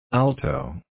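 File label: "alto", USA, male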